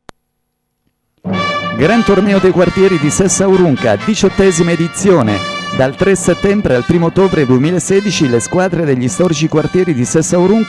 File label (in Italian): spot radiofonico